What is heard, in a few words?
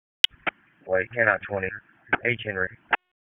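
Dispatch 1, P25; WSO DISP 1; wake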